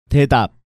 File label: "Daedap", Korean